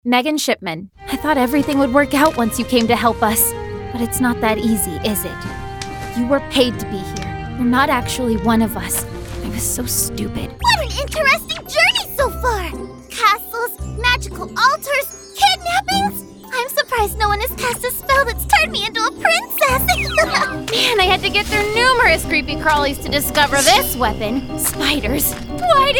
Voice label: character demo